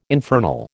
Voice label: "infernal", American

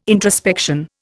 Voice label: "introspection", Indian

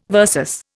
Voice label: "versus", Indian

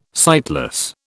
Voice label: "sightless", British

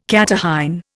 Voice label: "catarrhine", Indian

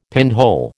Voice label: "pinhole", American

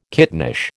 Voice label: "kittenish", American

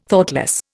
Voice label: "Thoughtless", Indian

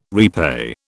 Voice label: "repay", British

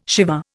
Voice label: "Shiva", Indian

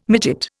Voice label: "midget", Indian

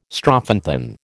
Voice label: "strophanthin", American

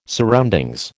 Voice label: "surroundings", American

